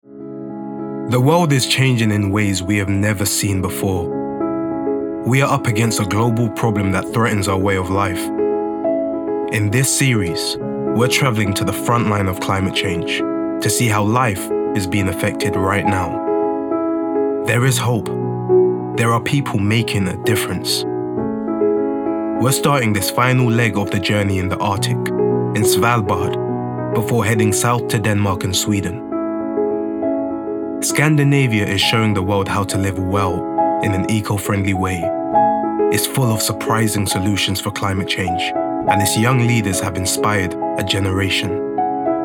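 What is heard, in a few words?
documentary; stv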